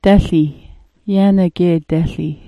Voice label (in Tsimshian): "dalii", Sm'algyax